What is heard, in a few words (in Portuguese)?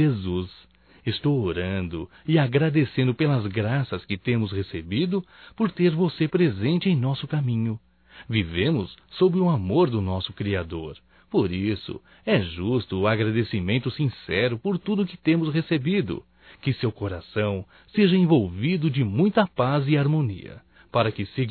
Natal e Ano Novo Irmão Masculino; Telemensagens 2017